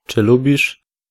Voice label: do you like, pl